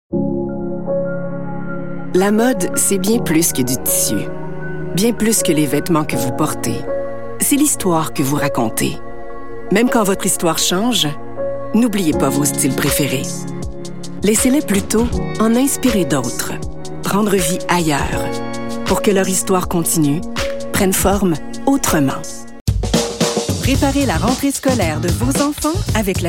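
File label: Canadian French, Commercial; GoLocalise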